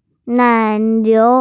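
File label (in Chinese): "nai3 niu2" Mandarin Chinese, female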